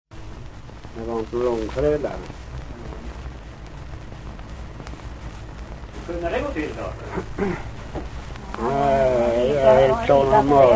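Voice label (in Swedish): Valbo Gästrikland, dialekter; Folkminnen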